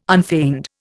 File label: "unfeigned", Indian